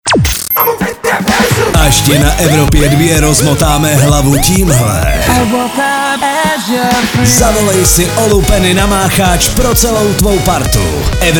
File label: liner; machac 2022